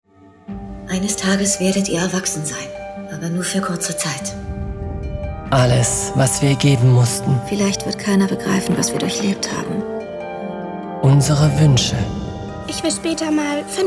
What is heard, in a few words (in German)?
Schauspiel sanft